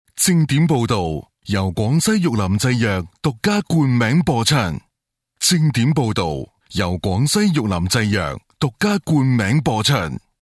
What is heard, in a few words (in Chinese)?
粤男; 玉林制药